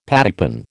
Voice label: "pattypan", American